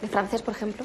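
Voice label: Voice Acting es Female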